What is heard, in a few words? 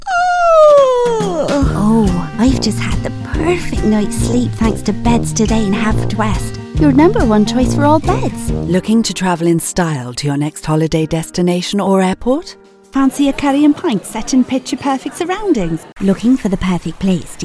Radio reel ads